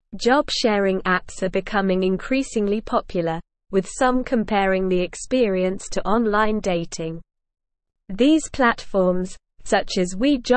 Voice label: Advanced SLOW Reading, English; English Newsroom

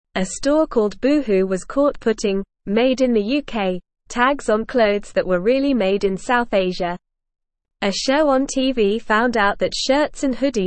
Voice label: English Lower Intermediate NORMAL Reading; Boohoos Mistake Wrong Tags on Clothes; English Newsroom

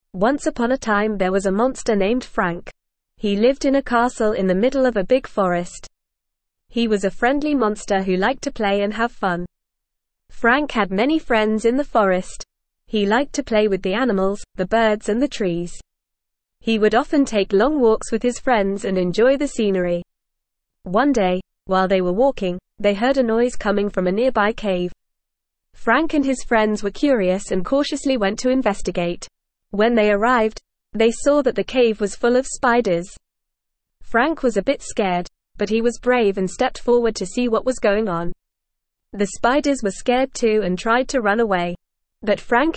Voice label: ESL Short Stories for Kids NORMAL reading; Frank The Friendly Monster

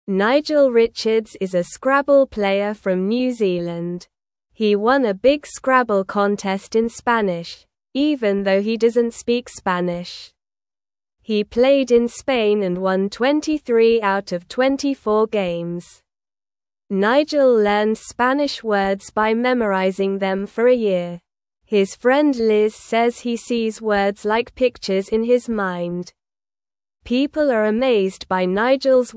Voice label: English news reading, beginner, slow; English Newsroom; Nigel Wins Scrabble Contest Without Speaking Spanish

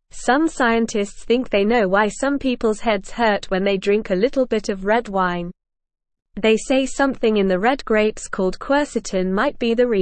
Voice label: English Newsroom Beginner NORMAL Reading; Why Does Red Wine Give Some People Headaches

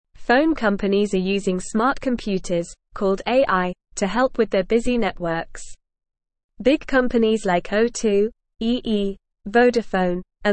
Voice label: English NORMAL Reading; Phone companies use smart computers to help networks

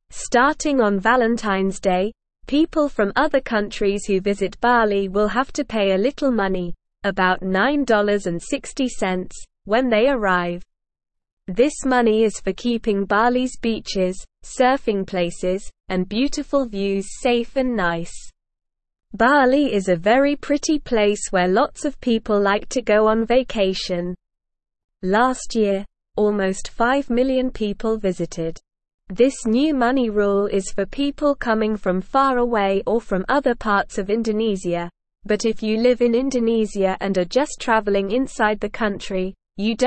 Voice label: English Newsroom Beginner SLOW Reading; New Money Rule for Visitors to Bali

